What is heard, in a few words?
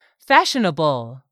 "FASHIONABLE", english; 3000 words